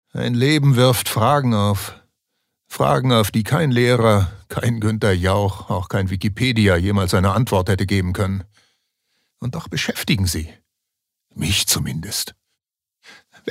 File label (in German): Schauspiel Dynamisch